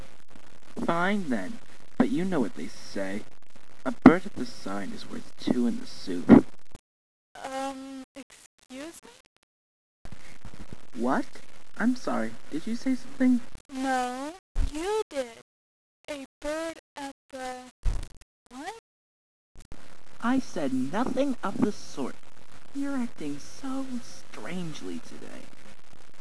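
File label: acting strangely, voice scenes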